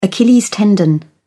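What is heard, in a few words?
"achilles tendon", British English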